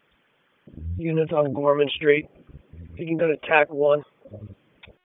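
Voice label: Police District Dispatch, p25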